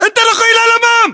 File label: order move forward, battle chatter, english